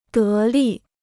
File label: "得力", Chinese